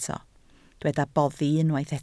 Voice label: "boddi", Welsh

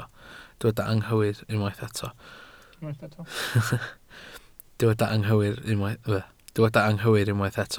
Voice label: "anghywir", Welsh

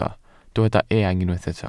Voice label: "eang", welsh

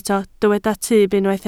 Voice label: "tyb", Welsh

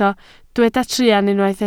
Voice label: "truan", Welsh

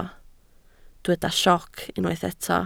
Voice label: "sioc", Welsh

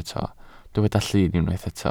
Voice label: "llun", Welsh

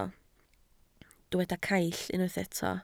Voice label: "caill", Welsh

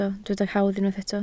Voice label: "hawdd", Welsh

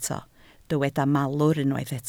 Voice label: "malwr", Welsh

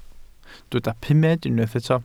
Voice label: "pumed", welsh

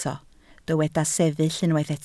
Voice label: "sefyll", Welsh

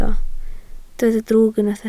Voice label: "drwg", Welsh

